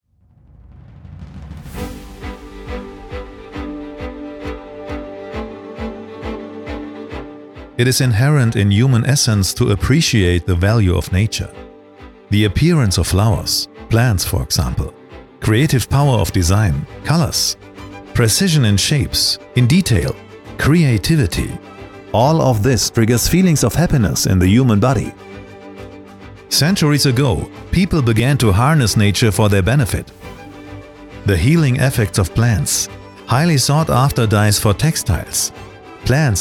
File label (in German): Imagefilm Englisch; CreteLive